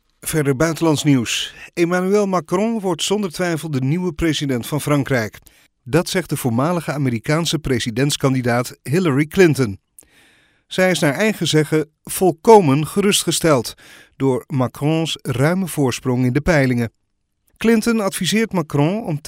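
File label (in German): Dutch Neutral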